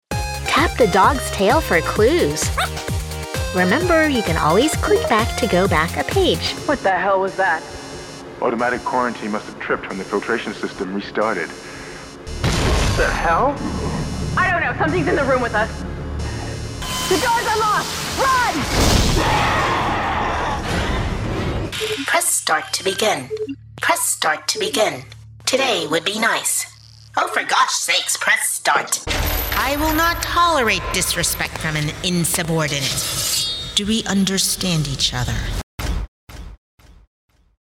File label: Video Game, youthful, bright, and upbeat voice; voice-over